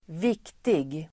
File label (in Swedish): "viktig", swedish